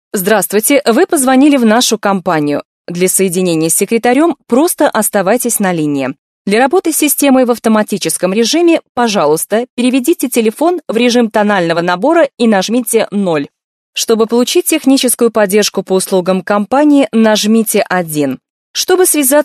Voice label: russian female